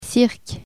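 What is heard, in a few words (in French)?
"cirque", French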